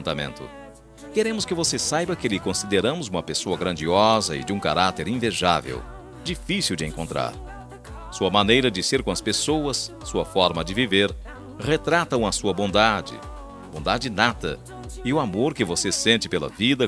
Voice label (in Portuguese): ANIV PRIMA MASC, telemensagem aniversario prima